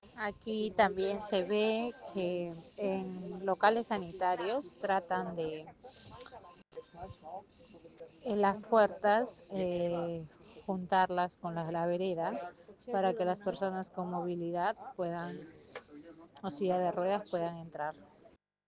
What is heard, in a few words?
Voice message